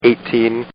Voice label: Man Says, Talking; Partners In Rhyme